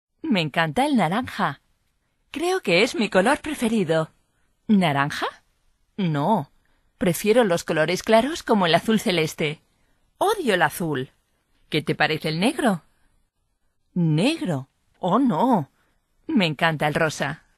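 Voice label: Spanish dictionary, frauen aus aller welt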